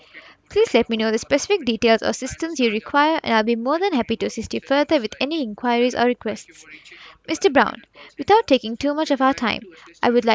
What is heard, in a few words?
Logitech H570e